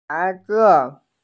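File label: "baise", Mandarin Chinese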